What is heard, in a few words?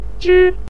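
"zhi" neutral tone, Mandarin Chinese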